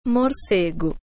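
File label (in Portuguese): "bat", Portuguese; FoodChain.activity; pt BR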